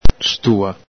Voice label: "stua", Veneto